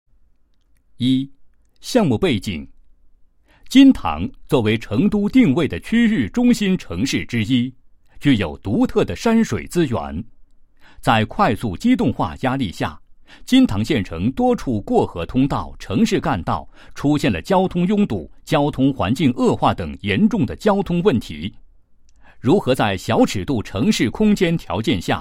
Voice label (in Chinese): 男-项目规划; 金堂城市综合交通规划